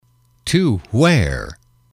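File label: "to where", English, male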